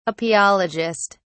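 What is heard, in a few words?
"apiologist", British English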